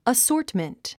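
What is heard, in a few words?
"assortment", English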